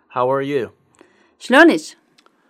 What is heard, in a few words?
iraqi, How are you (feminine) shlo-nitch شلونج